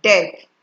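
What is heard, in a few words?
"deck", Nigerian Pidgin, female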